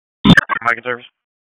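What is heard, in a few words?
EMS DISP, radio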